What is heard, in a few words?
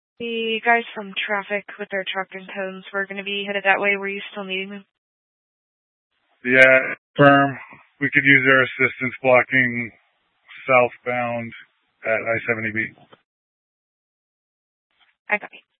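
Police Dispatch, scanner audio recording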